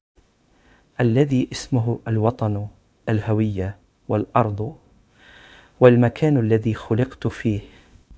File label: generated voice; gradio